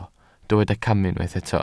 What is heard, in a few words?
"camu", welsh